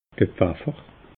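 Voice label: "pfaffer", Alsatian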